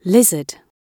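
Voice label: "lizard", British English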